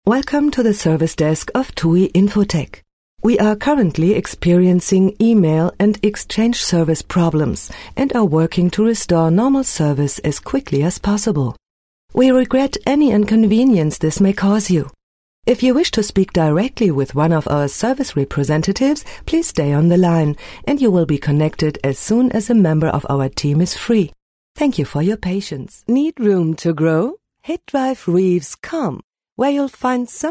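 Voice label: german female voiceover english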